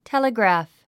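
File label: "telegraph", English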